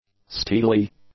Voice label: "steely", English; adjectives